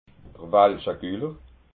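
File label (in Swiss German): "walschaguller", Alsatian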